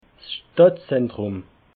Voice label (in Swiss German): "stadtzentrum", alsace